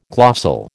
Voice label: "glossal", American